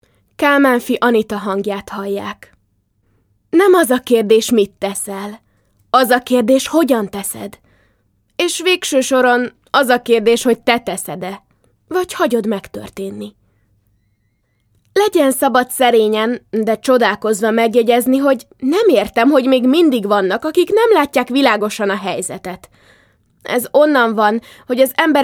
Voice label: hungarian f narration; voice demos

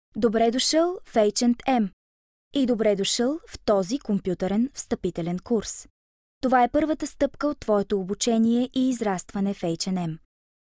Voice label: bulgarian f e-learning, voice; voice demos

